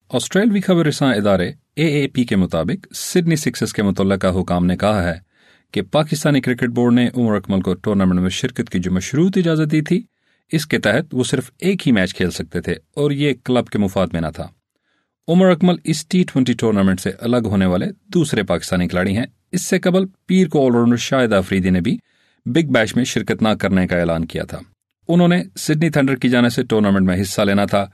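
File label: Urdu, male, conversational; voice-over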